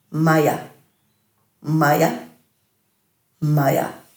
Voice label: "maya", Dhurga